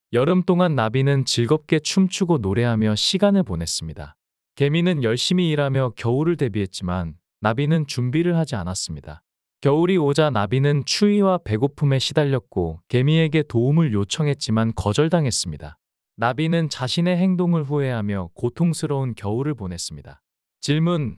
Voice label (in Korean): 이야기 남자; 나비와 겨울